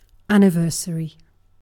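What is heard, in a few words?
"anniversary", British English; pronunciations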